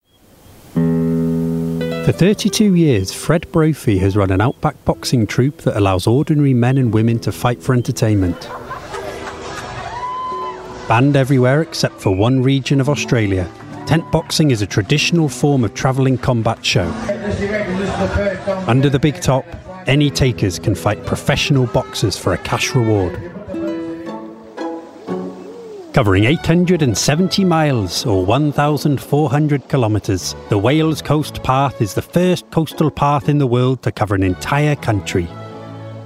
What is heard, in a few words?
Documentary Voicereel